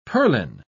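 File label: "purlin", British English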